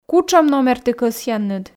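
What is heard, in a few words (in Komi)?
кутшӧм номер ті кӧсъянныд, Komi, female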